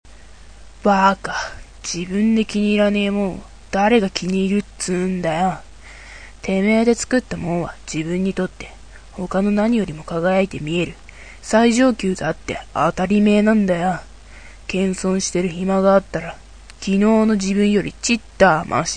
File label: boy; アレン・ウォーカー